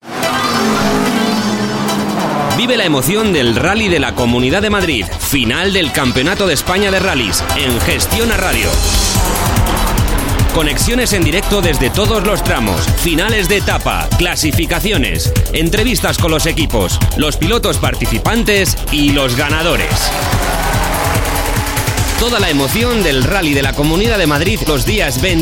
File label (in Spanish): Euro Spanish; Rally